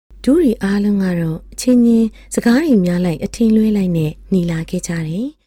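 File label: Burmese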